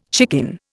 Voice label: "chicken", Indian